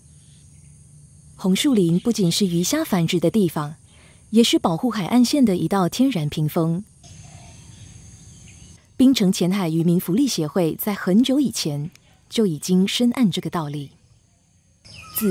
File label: documentary read sample